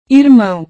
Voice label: "irmão", portugues

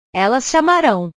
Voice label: "elas chamarao", portugues; portugues/verbos

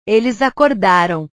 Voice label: "eles acordaram", portugues

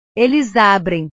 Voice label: "eles abrem", portugues; verbos

